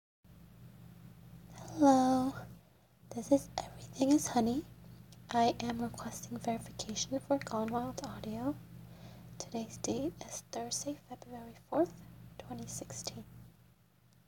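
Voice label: Female verification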